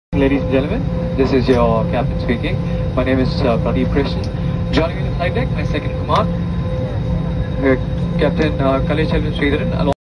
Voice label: Pilot conversation; مکالمه خلبان با مسافران